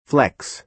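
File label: "flex", American English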